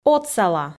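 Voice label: "отсала", Komi, female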